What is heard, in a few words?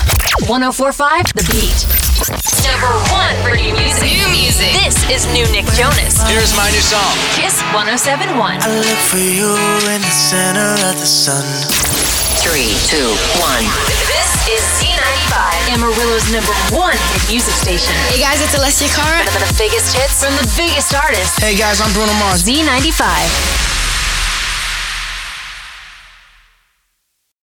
Radio Imaging VO